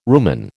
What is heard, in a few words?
"rumen", American